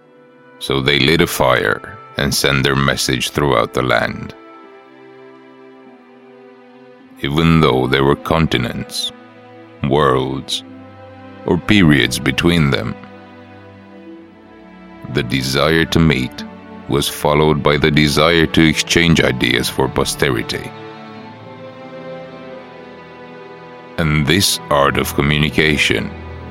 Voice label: once upon a time soundtracked

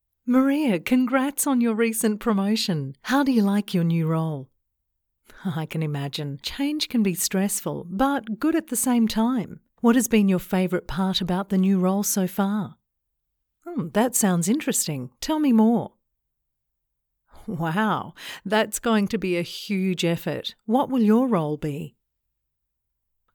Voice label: E-Learning Conversation

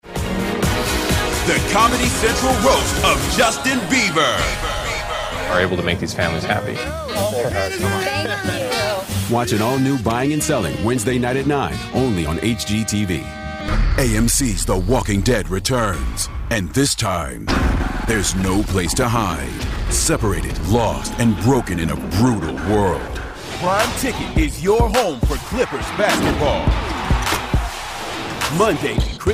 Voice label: Promo

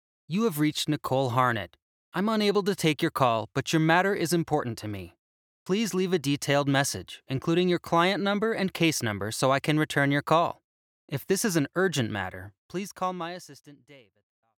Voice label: IVR

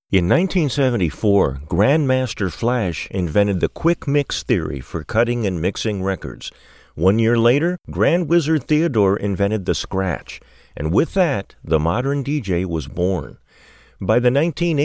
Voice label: NARRATION COMMERCIAL

